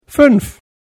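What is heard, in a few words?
"fünf", German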